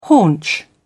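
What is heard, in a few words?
"haunch", English, uk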